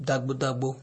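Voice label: "dagbodagbo", Nigerian Pidgin, male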